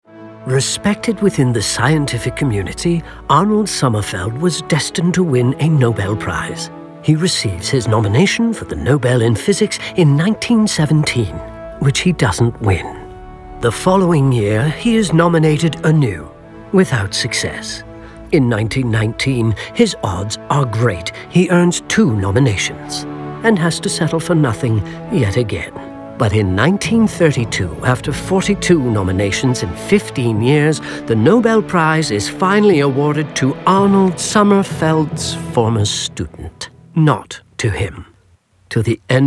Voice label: british voice; farnham